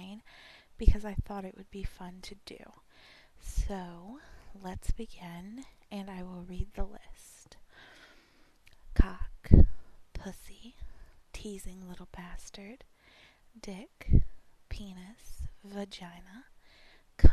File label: Accent Challenge